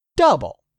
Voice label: "DOUBLE", English; 3000 words